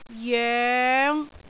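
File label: "joeng5", Cantonese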